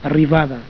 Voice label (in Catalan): arrivada, catalan